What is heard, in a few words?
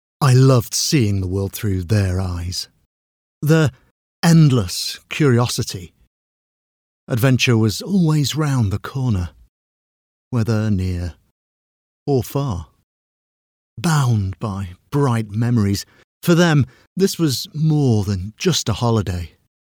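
voiceover character reflective holiday memory, British character voice over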